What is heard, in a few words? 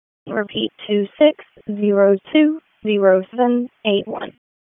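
Police District Dispatch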